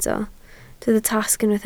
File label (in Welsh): "tasg", Welsh